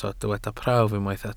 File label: "prawf", Welsh